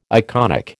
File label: "iconic", American